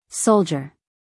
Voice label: "soldier", US English, female; everyone-can-use-english